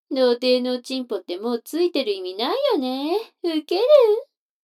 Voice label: 女の子 台詞

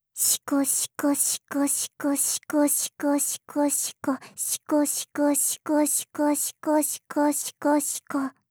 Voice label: シコシコオンリー 速く

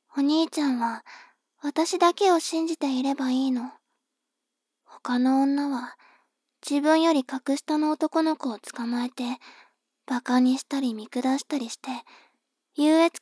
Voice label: 妹 台詞

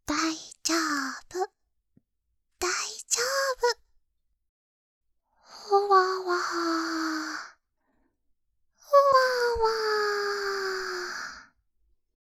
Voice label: 励ましボイス

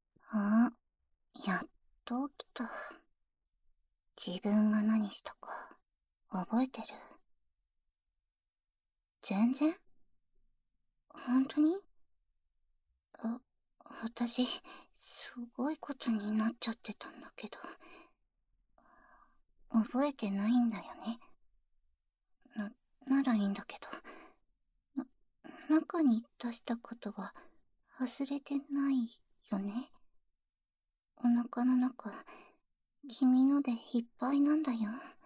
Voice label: ピロートーク

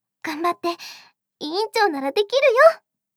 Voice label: 応援; リナからの応援

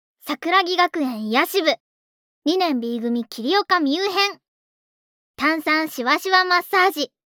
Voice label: タイトルコール

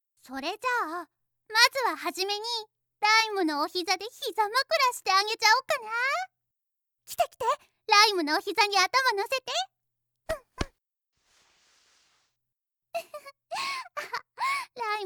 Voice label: お耳を綺麗にしてあげる(右); 来夢; 本編Disc

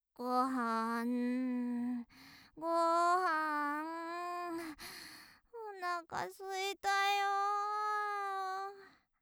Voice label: 元気JK ごはんだよ

